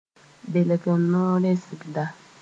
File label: "belgr no-rɛɛsda", Mooré